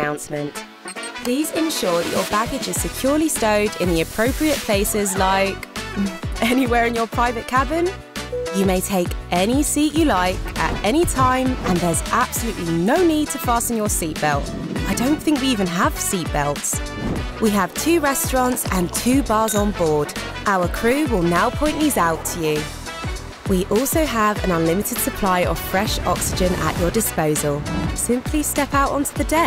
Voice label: Welcome aboard, UK; DFDS

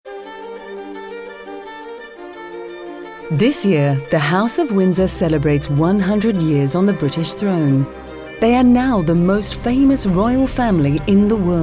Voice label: Documentary, voice-overs

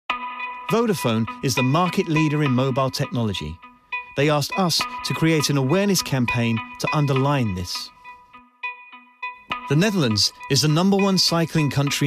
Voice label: voice-over; Vodafone Smart Jacket Casefilm

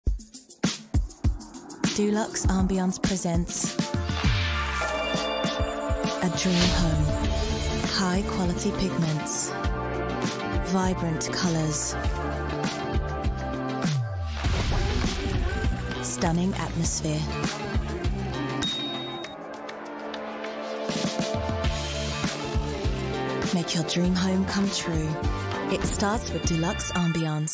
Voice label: UK; Dulux Ambiance

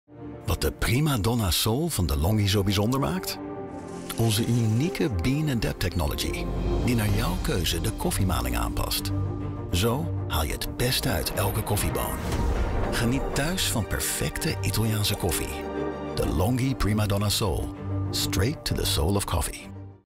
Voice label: NL TVC; PrimaDonna Soul; DeLonghi Nederland

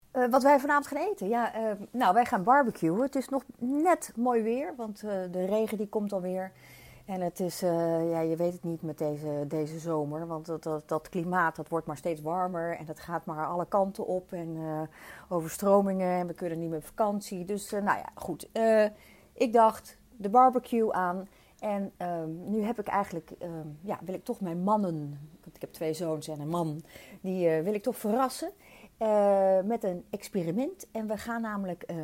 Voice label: testimonial

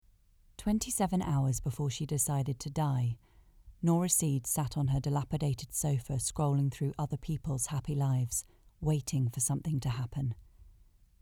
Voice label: NARRATION

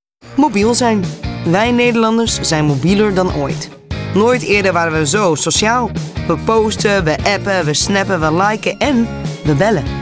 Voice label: NL; ING

